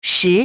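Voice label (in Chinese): "十" Chinese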